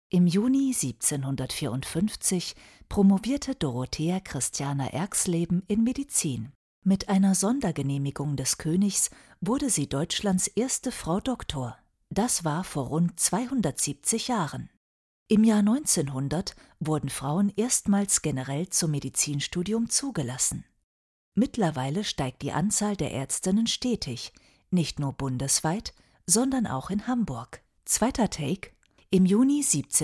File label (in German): Doku